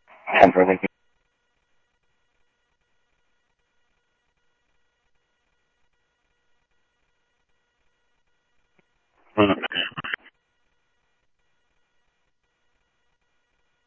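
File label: Sheriff West County, scanner audio trunk; ThompsonPeakScot; MCSO - WEST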